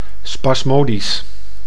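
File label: "spasmodisch", Dutch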